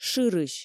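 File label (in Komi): "шырысь", Komi, female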